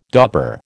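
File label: "dauber", American English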